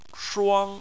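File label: "shuang", Chinese, first tone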